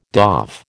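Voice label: "doff", American English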